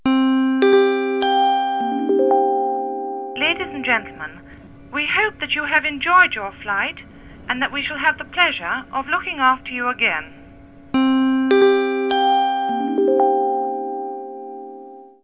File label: Mic Message